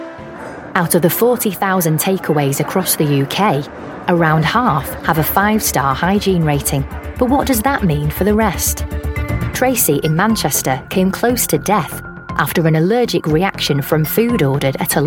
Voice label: documentary

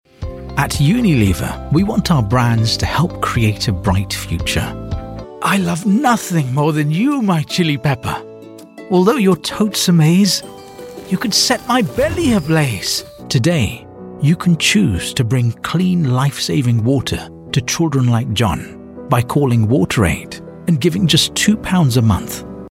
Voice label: british male voice corporate

